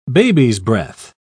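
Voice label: "babies'-breath", British English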